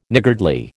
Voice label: "niggardly", American

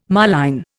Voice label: "moline", Indian

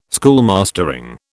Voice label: "schoolmastering", British

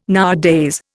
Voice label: "nowadays", Indian

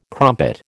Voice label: "crumpet", American

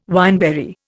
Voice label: "wineberry", Indian

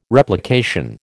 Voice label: "Replication", American

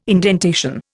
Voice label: "indentation", Indian

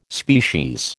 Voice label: "species", American

